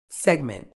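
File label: "segment", British English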